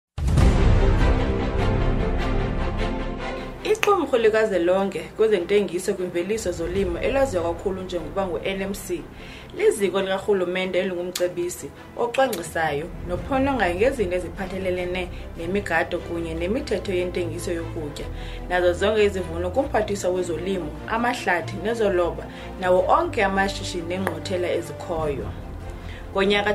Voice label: Xhosa Listeriosis